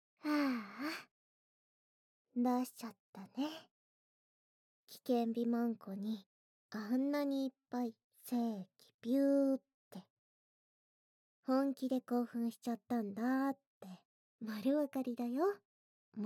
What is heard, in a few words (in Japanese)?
あまあまピロートーク